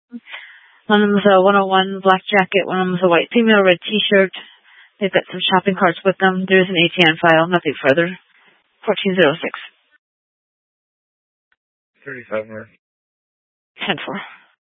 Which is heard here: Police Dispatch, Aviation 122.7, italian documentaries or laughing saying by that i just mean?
Police Dispatch